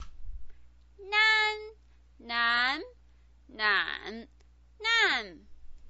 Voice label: "nan", Chinese pinyin